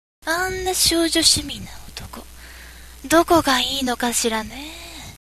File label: girl